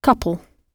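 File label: "couple", British English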